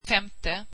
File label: swedish fifth